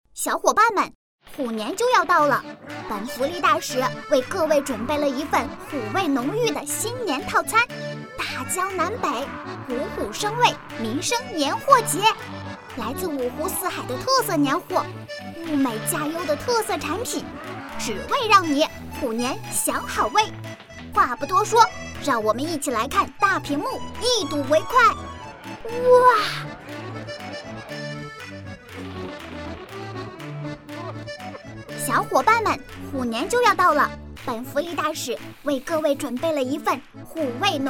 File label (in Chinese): 女 童声 虎年福利